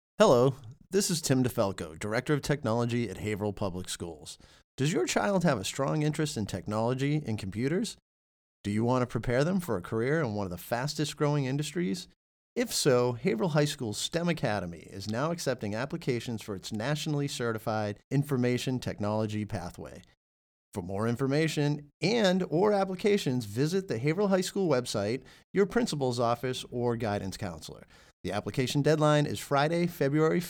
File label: Phone Announcement; IT Pathway